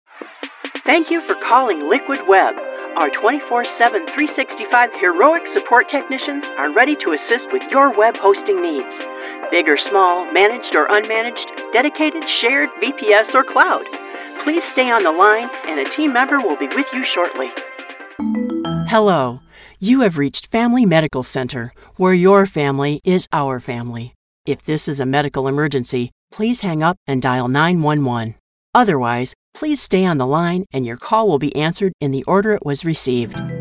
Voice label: Telephony

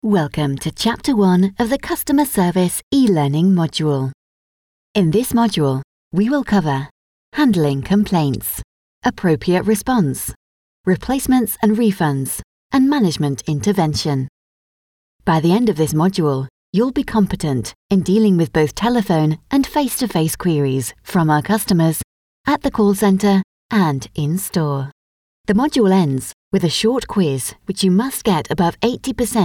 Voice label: eLearning Narrator

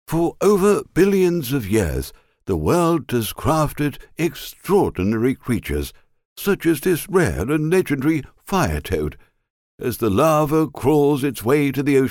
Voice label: CHARACTER VOICE ATTENBOROUGH STYLE UK Voiceover

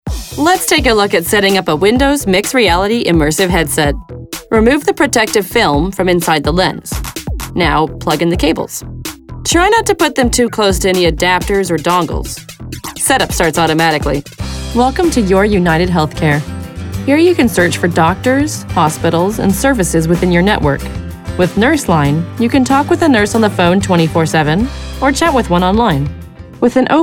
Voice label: Corporate Narration

